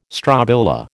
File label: "strobila", American